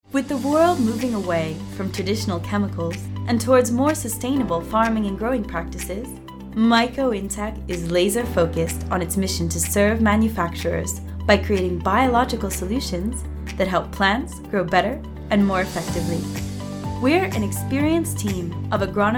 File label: female american english corporate comercial; ideasonora